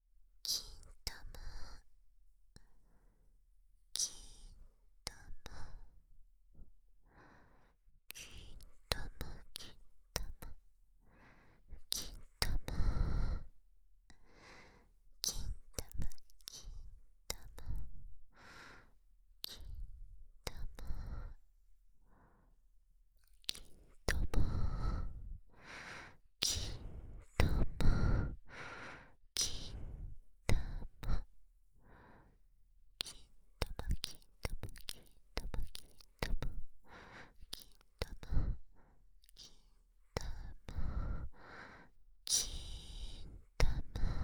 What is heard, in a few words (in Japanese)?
囁き淫語連呼; SEなし